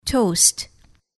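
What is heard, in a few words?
"toast", English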